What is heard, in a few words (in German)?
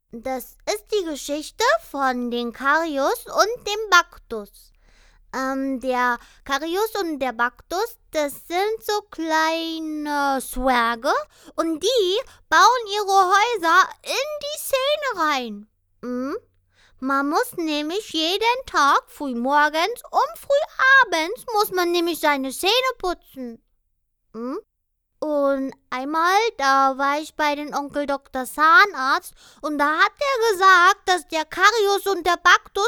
Trickstimme